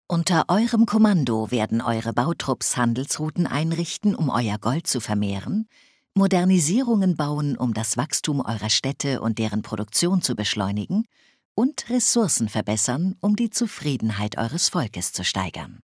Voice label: Workers Construct Improvements, Speech German Advisors; Civ5